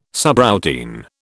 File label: "subroutine", British